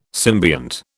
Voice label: "symbiont", British